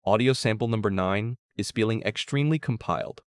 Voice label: Upbeat and clear, useful for task-oriented reads with forward motion.; upbeatclearbrisk